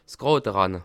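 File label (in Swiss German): "grade", Bas Rhin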